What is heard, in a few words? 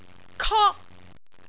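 "kok1", Cantonese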